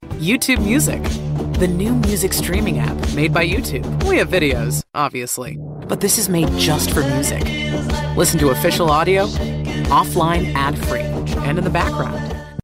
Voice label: youthful upbeat warm friendly energetic cool relatable